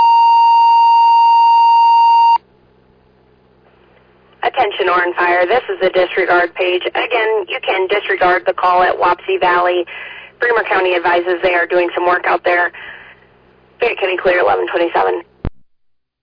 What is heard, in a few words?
Oran Fire, dispatch